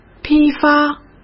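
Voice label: "批发", Mandarin Chinese; hsk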